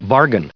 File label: "bargain", en-us